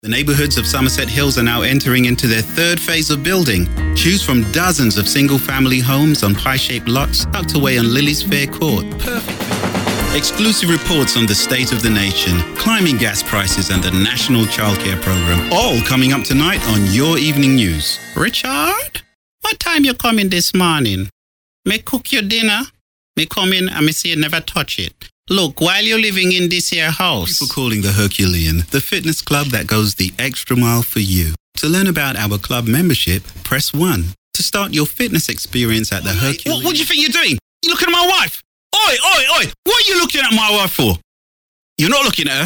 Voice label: Commercial Male, british; Commercial Voiceover, TV and Radio, eLearning, Narration, International Voiceover, British

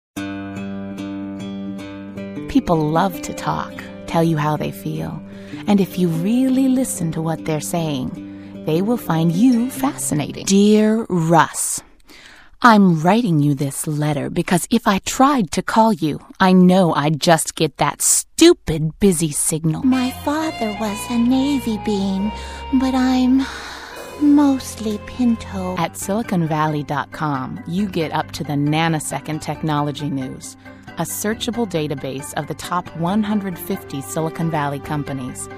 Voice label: Commercial Female

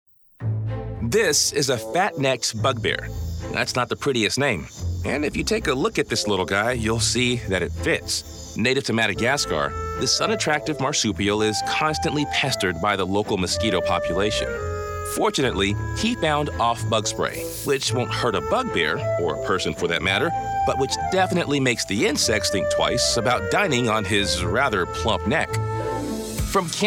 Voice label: Explainer Male

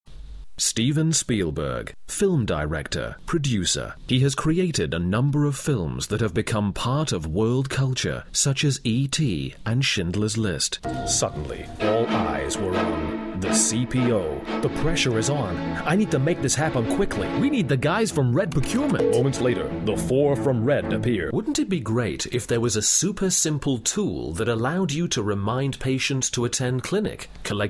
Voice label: Commercial British Male

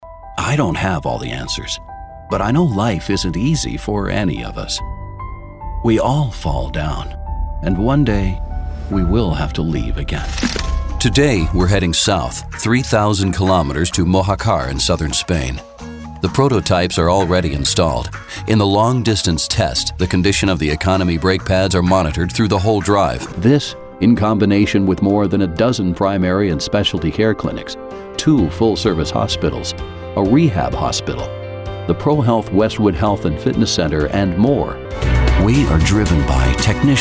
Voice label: Narration

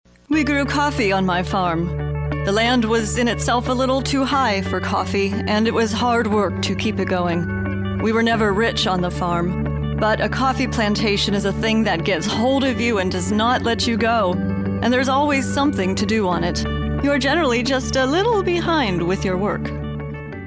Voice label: Narration Female

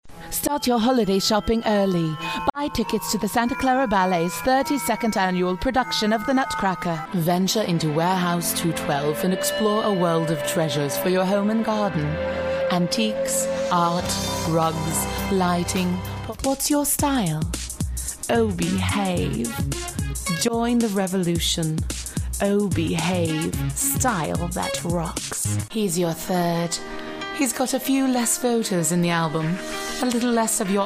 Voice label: British Voice Female

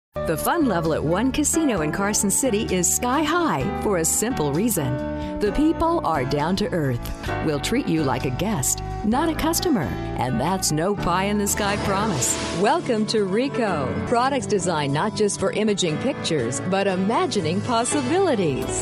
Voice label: Commercial Female, Familiar, Warm, Friendly

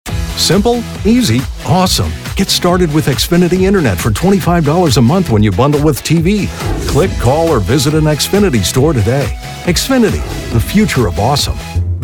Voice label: Commercial

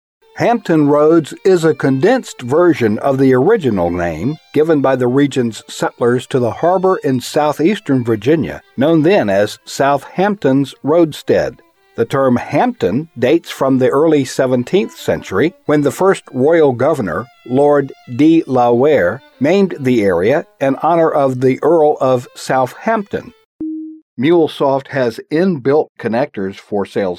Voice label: eLearning Male

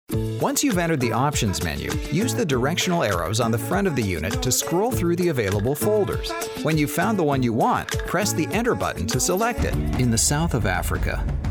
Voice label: Narration Male

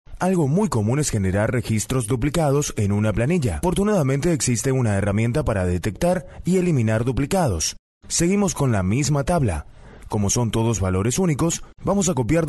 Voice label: Foreign Voices Spanish Male

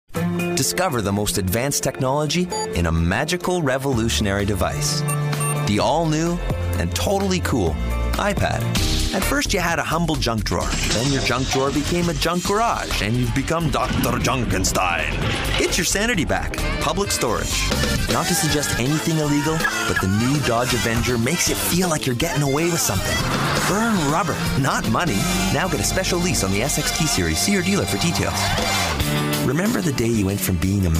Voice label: Commercial Male

